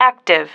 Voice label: "active", female